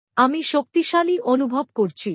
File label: I am feeling strong, bangla; Expressing Feelings